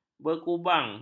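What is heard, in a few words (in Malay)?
"berkubang", Malay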